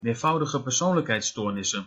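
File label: "meervoudigepersoonlijkheidsstoornissen" Dutch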